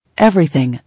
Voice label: "Everything", English

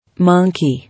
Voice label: "Monkey", English

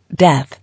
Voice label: "Death", English

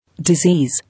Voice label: "Disease", English

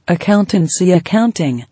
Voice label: "Accountancy- Accounting", English

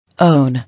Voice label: "Own", English

